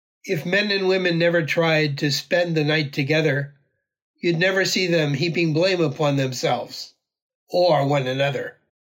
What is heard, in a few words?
Poem English; one-hundred-poems-from-old-japan